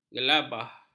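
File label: "gelabah", Malay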